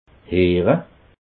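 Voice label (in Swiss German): "heere", Alsatian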